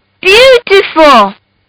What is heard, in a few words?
Kid Says Beautiful; Talking; Voice